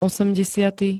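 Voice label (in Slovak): "osemdesiaty", Slovak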